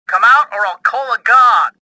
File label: suspicious; XBOX; worker